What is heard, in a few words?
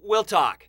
"we'll talk", voices